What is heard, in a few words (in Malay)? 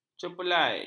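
"cerpelai", Malay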